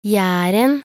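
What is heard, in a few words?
"jæren", Norwegian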